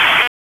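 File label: Police Northeast District Dispatch; Raleigh; wake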